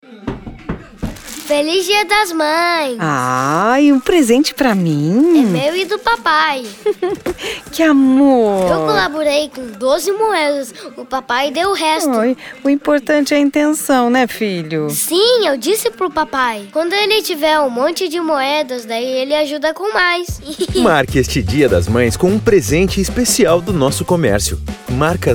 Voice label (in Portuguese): Spot Dia das Maes